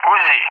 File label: "Uzi", ENG; Allied Flight; CallSign; DCS